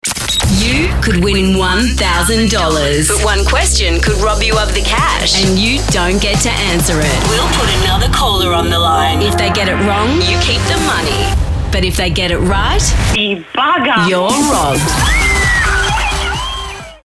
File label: PROMO; FOX